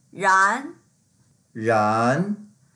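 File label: "ran2", Mandarin Chinese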